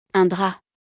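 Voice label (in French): "Un drap", French; vocabulaire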